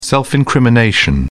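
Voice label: "self-incrimination", British English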